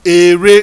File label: "ere", Yoruba; ORAL VOWELS; ede-ewe-ere